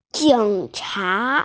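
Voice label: "jing3cha2", Mandarin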